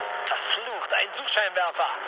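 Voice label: German searchlite mood low, speech; War Thunder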